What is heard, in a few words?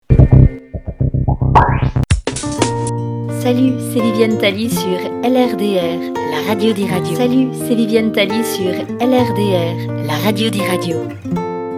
Radio